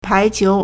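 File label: "pai2qiu2", Mandarin Chinese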